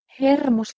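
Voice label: "h2ermos", Early Proto-Indo-European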